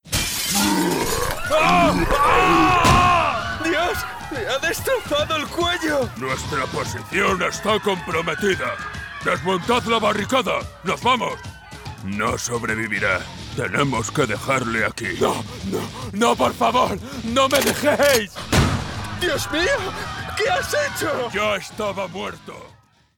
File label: Spanish Spain